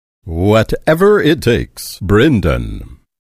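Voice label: The broadcast voice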